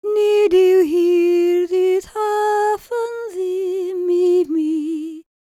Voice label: WELSH F, World Voices